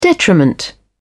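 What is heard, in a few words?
"detriment", British English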